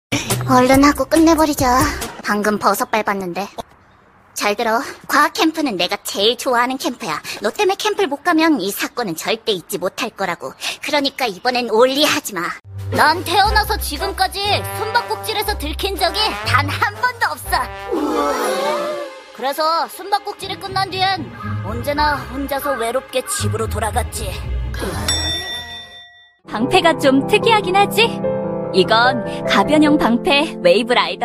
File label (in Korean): 여성 (Female)